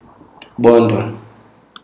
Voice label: "bone", Chikunda